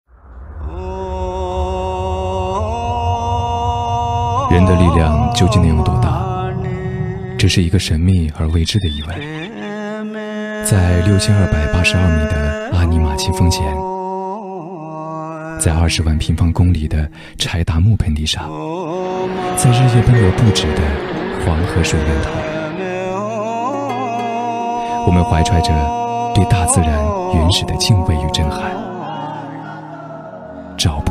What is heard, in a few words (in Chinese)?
高端男自然讲述; 韩红百人援青